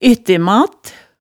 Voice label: "ettemat", hemsedalsmaalet

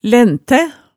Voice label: "læntæ", hemsedalsmaalet tale

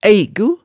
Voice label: "æigu", hemsedalsmaalet tale

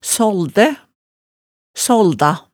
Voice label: "saaldæ", hemsedalsmaalet

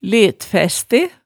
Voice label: "lettfæste", hemsedalsmaalet tale

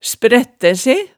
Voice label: "sprættæ se", hemsedalsmaalet, tale

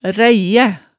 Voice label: "ræiæ", Hemsedal

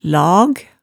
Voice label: "lag", hemsedalsmaalet tale

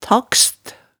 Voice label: "takst", hemsedalsmaalet tale

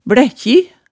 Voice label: "blækkji", hemsedals maalet tale

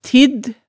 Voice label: "tidd", hemsedalsmaalet tale

